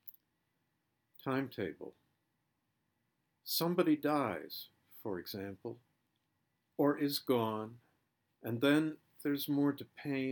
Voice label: Narration Vocal